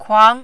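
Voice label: "kuang", Mandarin, second tone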